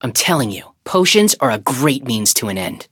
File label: level up, en; Cookie Run